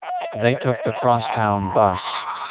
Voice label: dam siren melp, speechsamples